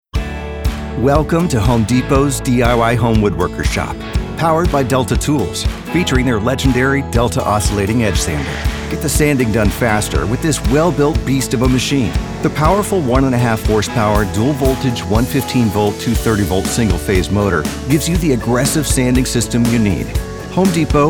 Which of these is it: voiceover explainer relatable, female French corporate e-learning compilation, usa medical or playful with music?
voiceover explainer relatable